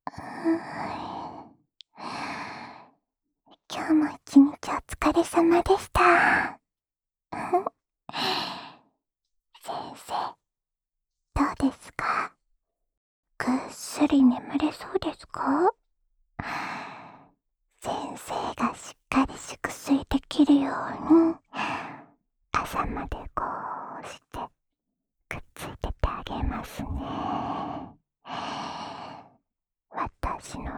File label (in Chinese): 安眠用 伴睡循环（左耳）